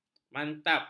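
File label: "mantap", Malay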